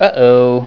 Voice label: Uh Oh; VillageSettings 3.80; FirstClass